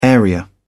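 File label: "area", English, British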